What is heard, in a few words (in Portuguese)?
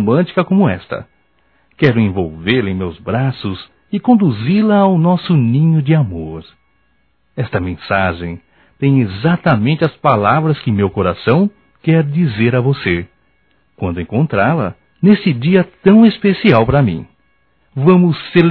Dia dos Namorados Masculino, Só Voz; Telemensagens; Telemensagens 2017